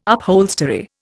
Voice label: "upholstery", Indian